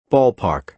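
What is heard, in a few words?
"ball park", American English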